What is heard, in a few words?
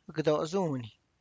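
tlacochahuaya, Zapotec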